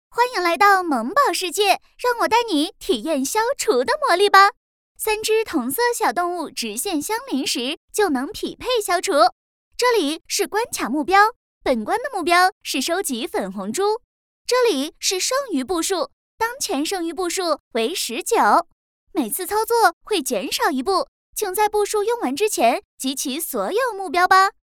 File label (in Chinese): 游戏指引类 活泼可爱风; 游戏CG